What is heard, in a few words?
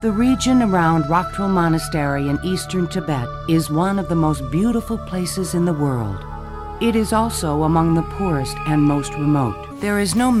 Narration Demo